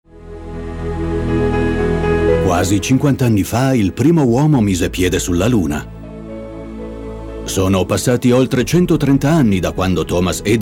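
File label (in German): Italian, Kommentar Freundlich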